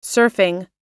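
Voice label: "surfing", US English word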